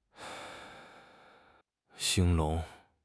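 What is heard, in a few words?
"兴隆", Mandarin Chinese; HSK6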